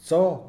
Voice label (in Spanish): "pronto", Mixe, hombre; Tiempo